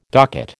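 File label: "docket", American English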